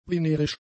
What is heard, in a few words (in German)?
"venerisch", German; adjectives